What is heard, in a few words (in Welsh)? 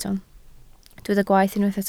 "gwaeth" Welsh